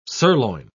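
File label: "surloin", British English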